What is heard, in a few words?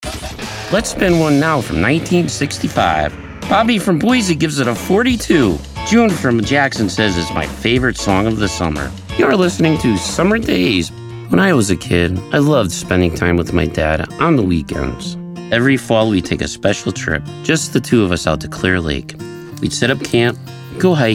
commercial